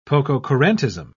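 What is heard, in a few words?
"pococurantism", British English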